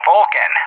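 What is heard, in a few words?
"Vulcan", English; JTAC; AirDefence; DCS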